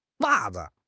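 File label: "wa4 zi5", Mandarin Chinese; HSK; HSK4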